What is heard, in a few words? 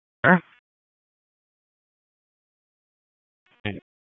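Police Southeast District Dispatch; Raleigh; wake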